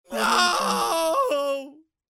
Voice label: male horrified yelling no